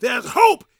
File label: preachin, gospel preacher